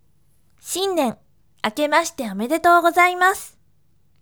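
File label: voice, bright; shinnen akemashite omedetou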